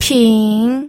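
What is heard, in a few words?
"ping", Mandarin Chinese, third tone; pinyin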